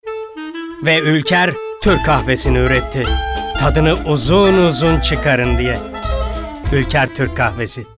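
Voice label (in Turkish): enerjik tempolu, seslendirme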